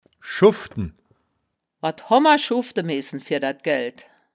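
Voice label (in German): "schuften", wittlicher woerterbuch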